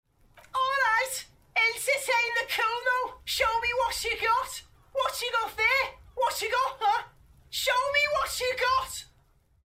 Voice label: voiceover; cuno